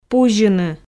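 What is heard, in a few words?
"пузьыны", Komi, female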